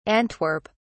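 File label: "antwerp", British English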